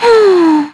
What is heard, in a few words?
Think Madness, kr; Xerah; kingsraid; voices heroes